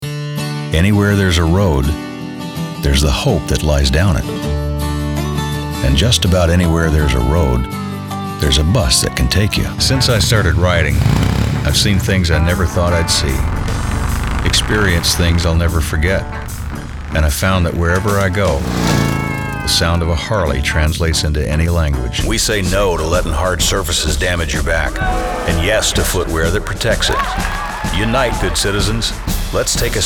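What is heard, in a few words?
commercial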